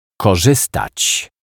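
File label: "korzystac", Polish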